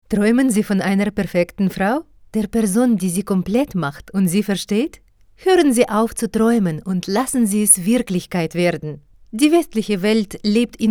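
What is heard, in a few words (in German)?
Russian Akzent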